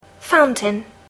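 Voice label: "fountain", English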